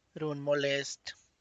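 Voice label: tlacochahuaya, Zapotec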